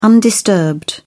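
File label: "undisturbed", British English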